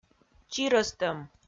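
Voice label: "чирӧстӧм", Komi-Zyrian, female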